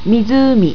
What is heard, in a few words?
"mizuumi", japanese